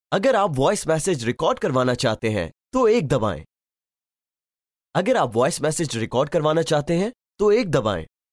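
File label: Urdu IVR; GoLocalise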